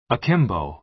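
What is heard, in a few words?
"akimbo" American English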